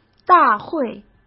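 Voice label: "大会" Mandarin Chinese; chinese-flashcards; hsk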